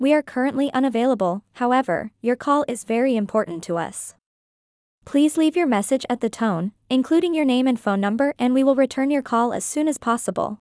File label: General MBX Greeting; Female